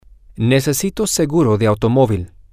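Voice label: I need auto insurance, Spanish, male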